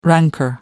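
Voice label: "rancor", British English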